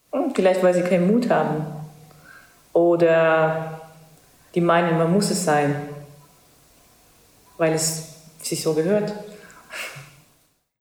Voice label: estnisch

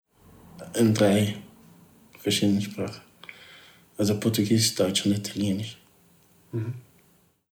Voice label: portugiesisch mosambik

